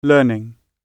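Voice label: "learning", British English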